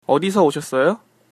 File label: where from, Korean